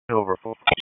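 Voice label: Raleigh Police Special Operations Tactical, p25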